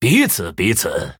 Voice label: Male Human MIRROR GREETINGS, zh